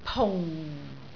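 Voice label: "pung4", Cantonese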